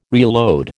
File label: "reload", American